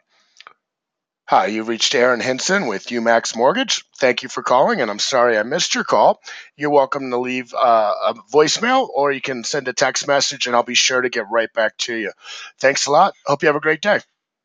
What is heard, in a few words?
ivr greeting